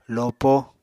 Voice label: "lopo", Apatani